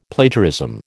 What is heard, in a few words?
"Plagiarism", American